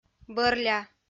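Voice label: "бӧрля", Komi, female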